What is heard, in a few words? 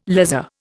"lazar", Indian